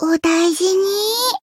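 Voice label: heal, Japanese; soira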